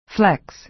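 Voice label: "flex" American English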